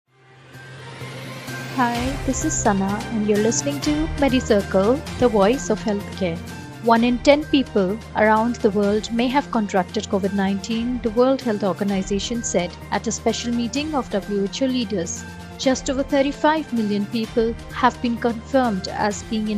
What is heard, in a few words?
english news